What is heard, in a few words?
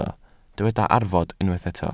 "arfod", Welsh